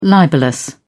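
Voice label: "libellous", British English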